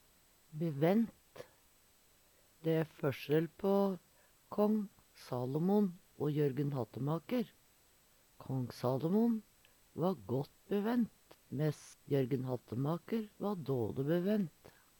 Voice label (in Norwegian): "bevent", Numedalsmål